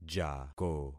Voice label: "jako", concat syllables; Affixing experiment